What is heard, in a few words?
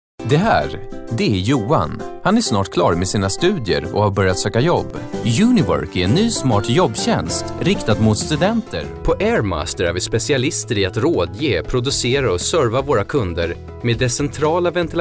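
Swedish male voice over, upbeat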